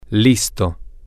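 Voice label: ready, Spanish, male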